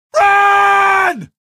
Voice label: strider run, male; Source Engine; video game; npc